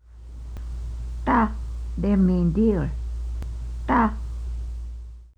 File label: deer, quapaw words